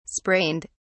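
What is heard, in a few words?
"sprained", British English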